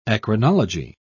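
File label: "eccrinology", British English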